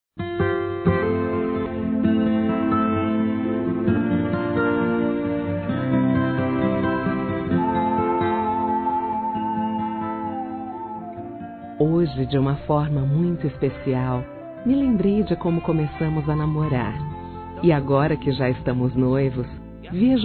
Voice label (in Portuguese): Romantica Aniversário Noiva Feminino; Telemensagens; Românticas